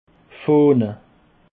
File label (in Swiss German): "fahne", alsace